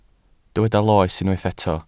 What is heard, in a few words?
"loes", Welsh